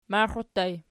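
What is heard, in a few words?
"maxiu" ergative plural, Archi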